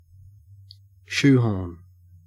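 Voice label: "shoehorn", Australian English; pronunciations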